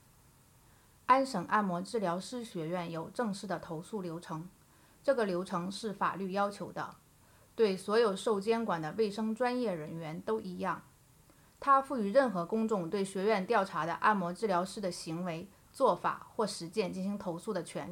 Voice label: Complaint Process Audio Guide Mandarin